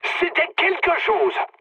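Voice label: French trick mood high; War Thunder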